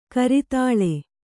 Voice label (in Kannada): "ಕರತಾಲ", Kannada